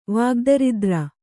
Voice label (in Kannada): "ವಾಗ್ದರಿದ್ರ", Kannada